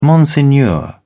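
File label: "monsignor", British English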